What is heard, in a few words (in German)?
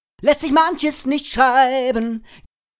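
vox, pfeifen während der audio aufnahme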